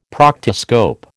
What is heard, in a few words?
"proctoscope", American